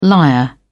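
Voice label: "lyre", English, British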